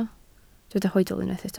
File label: "hoedl", Welsh